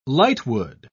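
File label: "lightwood", English, British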